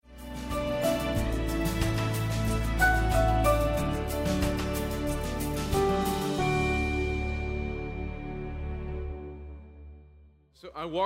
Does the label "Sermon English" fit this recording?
Yes